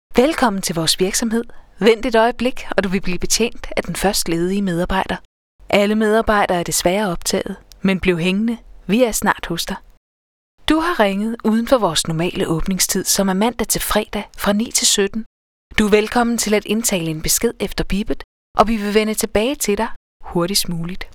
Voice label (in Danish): Kvinde neutral; danske professionelle indtalinger